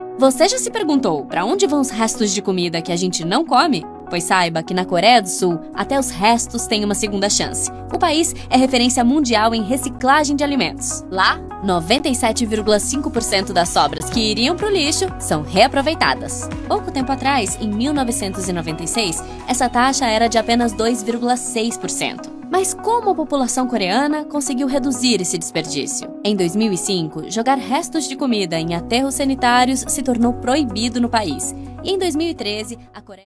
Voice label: portuguese brazilian explainer videos

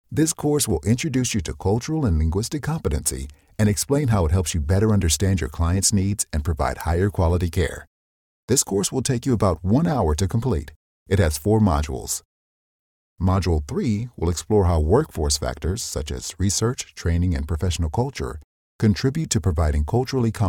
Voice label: english american e-learning

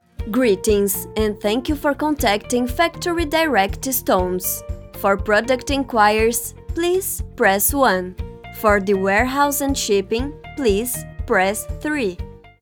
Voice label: english ivr; brazilian portuguese voice actors

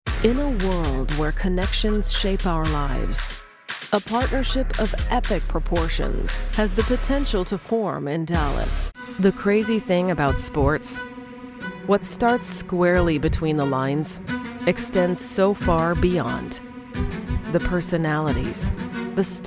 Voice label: english canadian tv shows; Fernsehshows

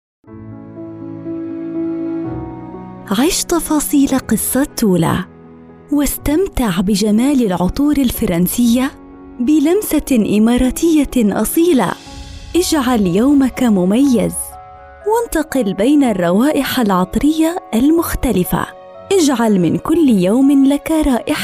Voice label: Arabic commercial; Arabisch; Palästina; arabische Synchronsprecherin; KLARE, REICHE, WARME UND ATTRAKTIVE STIMME

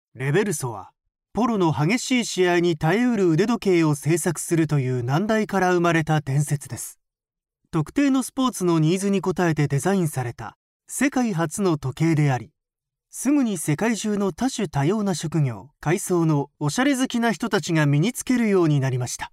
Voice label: japanese corporate videos

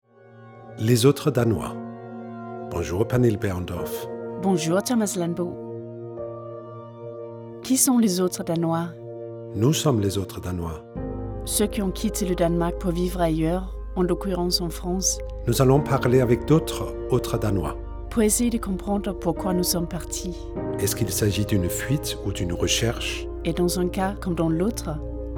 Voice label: french podcasts

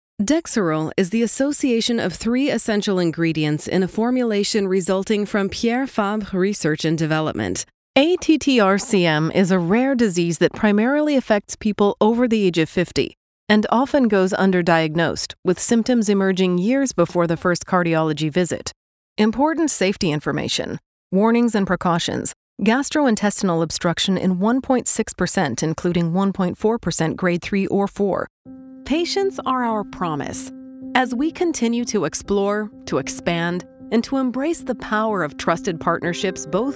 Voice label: english canadian medical narration; Medizinische Erzählung

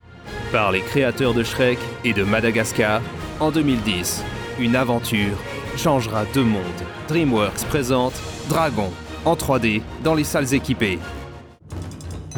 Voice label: french movie trailers

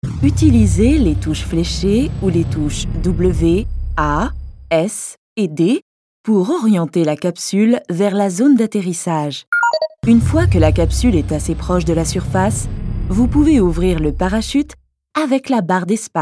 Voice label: french video games

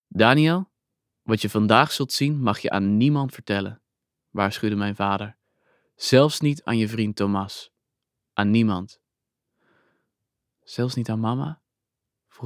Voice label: dutch audiobooks

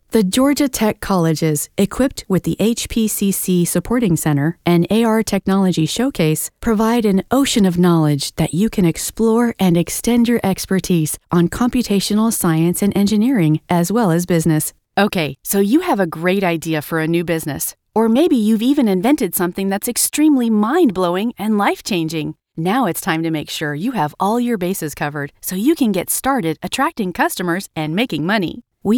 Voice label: english american corporate videos

